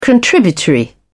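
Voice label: "contributory", British English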